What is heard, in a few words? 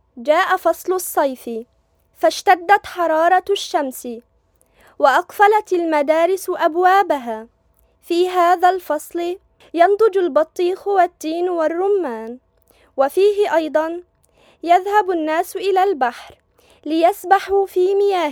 Arabic Female